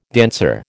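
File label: "danseur", American English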